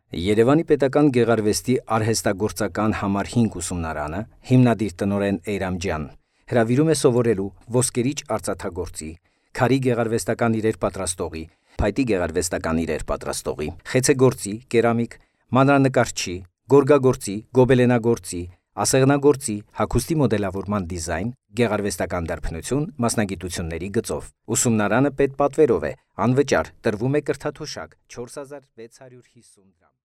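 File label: Armenian, male; voice over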